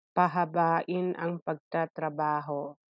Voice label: Tagalog